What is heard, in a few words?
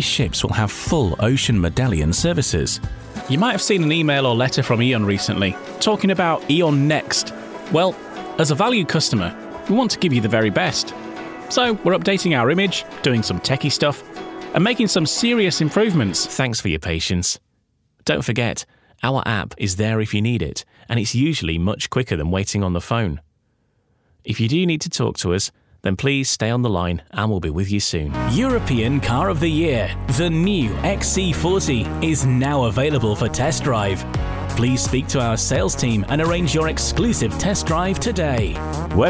On Hold IVR; On Hold IVR Demo Reel